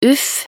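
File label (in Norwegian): "uff", Norwegian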